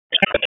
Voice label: WC EMS ALERT, p25